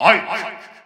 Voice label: English Announcer; Ike; SSB4-SSBU